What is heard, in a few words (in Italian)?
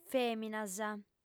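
"fèminas", lingua sarda, female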